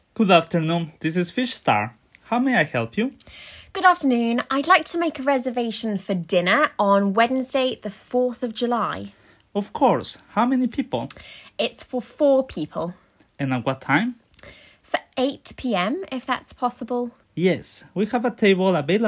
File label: Restaurant Conversation